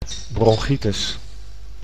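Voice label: "bronchitis", Dutch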